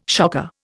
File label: "shocker", Indian